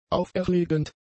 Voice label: "auferlegend", German; adjectives